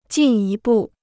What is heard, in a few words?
"进一步", Chinese